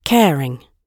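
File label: "caring", British English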